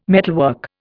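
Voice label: "metalwork", English, Indian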